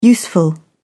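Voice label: "useful", British English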